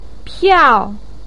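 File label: "piao4", Mandarin Chinese, pinyin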